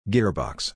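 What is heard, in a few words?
"gearbox", English pronunciation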